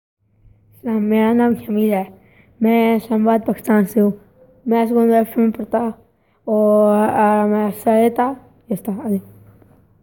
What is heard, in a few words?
Urdu